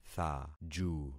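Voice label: "thaju", concatenated syllables; Affixing experiment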